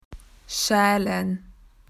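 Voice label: "to peel", Australian English; PictureDictionary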